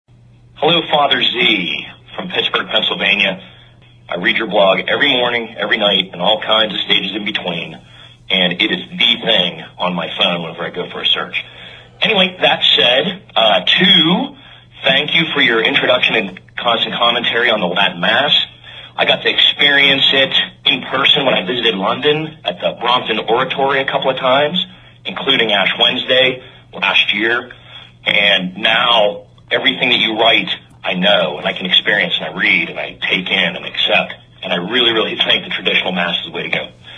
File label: voicemail